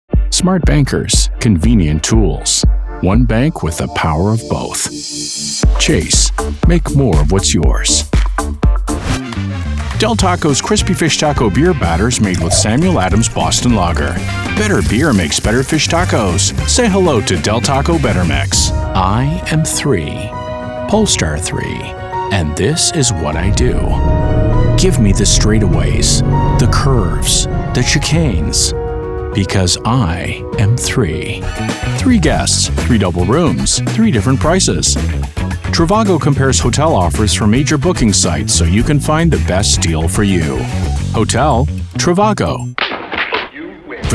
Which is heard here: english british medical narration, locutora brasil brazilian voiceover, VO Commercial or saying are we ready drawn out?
VO Commercial